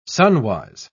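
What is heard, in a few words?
"sunwise", English, uk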